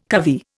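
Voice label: "covey", Indian English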